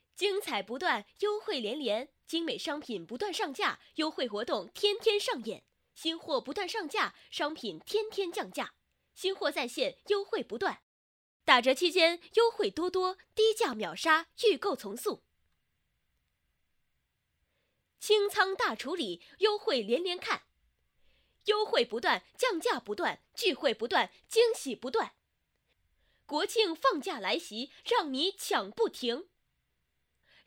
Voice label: 促销广告配音

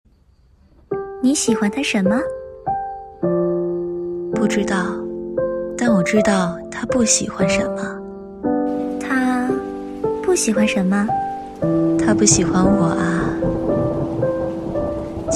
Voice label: 旁白, 青年（18-35）, 普通话, 女; 你喜欢他什么

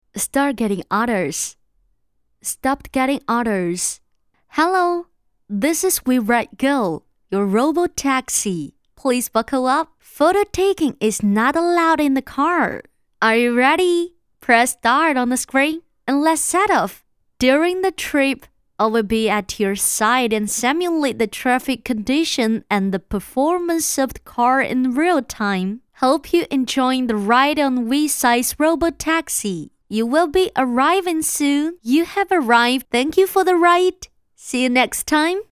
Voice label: 语音播报

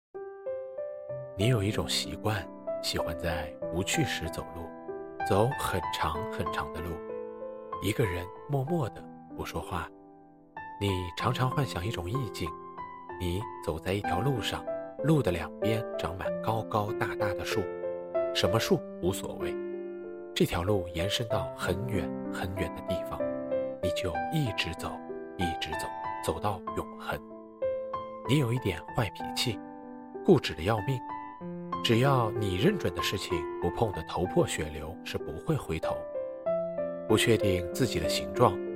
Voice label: 情感美文

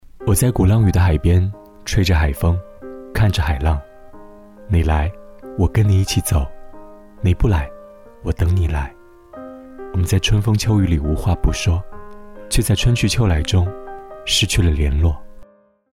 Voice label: 情感短句

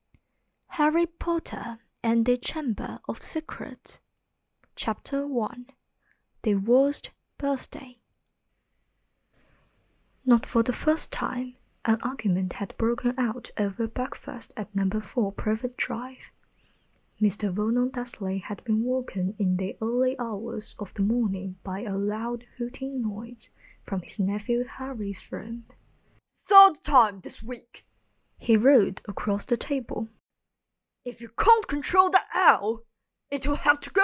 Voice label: 英 偏向英音; 哈利波特

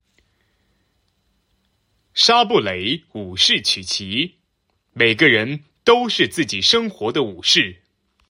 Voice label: 广告词配音

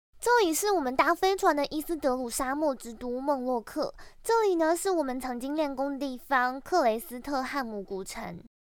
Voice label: 台普

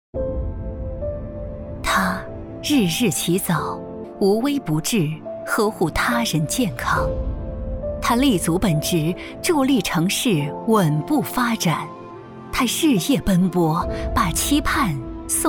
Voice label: 宣传片 大气 赞美 颁奖

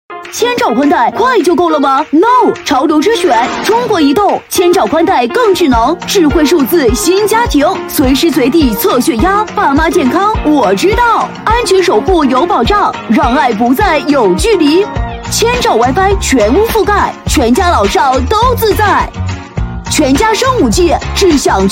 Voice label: 模仿类男童

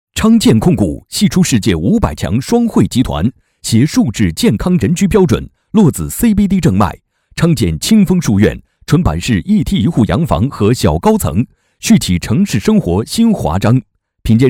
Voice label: 广告

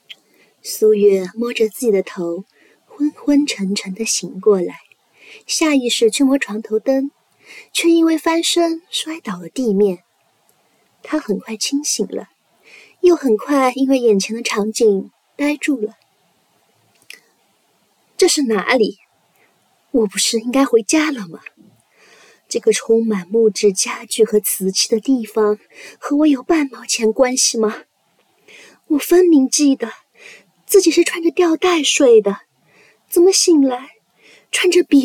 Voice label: 有声书片段干音

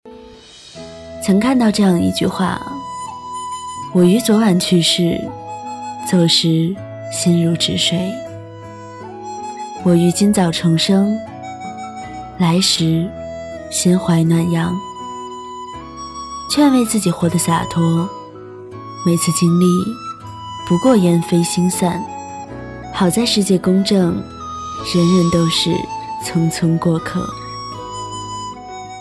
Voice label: 心如止水情感电台

